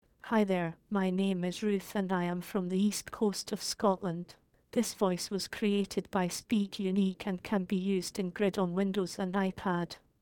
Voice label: Scottish E coast female